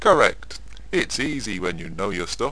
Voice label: chat

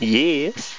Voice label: male ok; Foundation

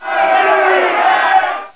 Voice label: angry

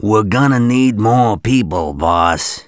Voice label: Not enough people, Male; gangsters

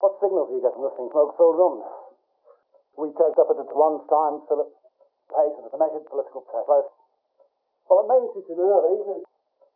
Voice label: telephone male; hotel giant demo

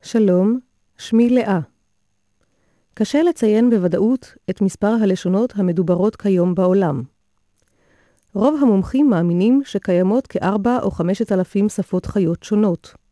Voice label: hebrew

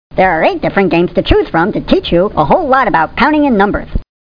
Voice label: Narrator; How Many Bugs in a Box

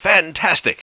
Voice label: happy - fantastic; Spades Deluxe 1.6

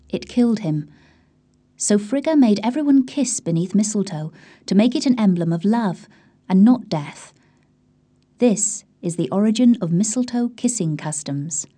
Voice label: GIRL

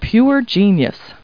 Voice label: people, kid

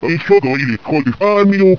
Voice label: pissed, Human Voices; Basik Human Voices; Human Sounds

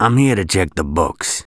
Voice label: Male, English; gangsters

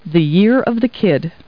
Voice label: people, kid

